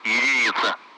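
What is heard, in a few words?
Speech Russian; Allied Flight; DCS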